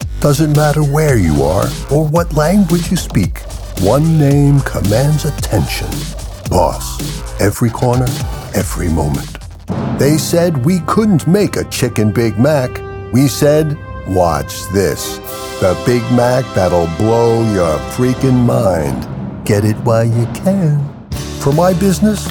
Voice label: Commercial; I'm a warm, friendly voice with a deep, resonant tone and a calm, confident delivery.